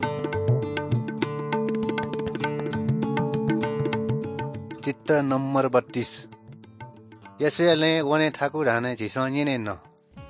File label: good news newari balami; bible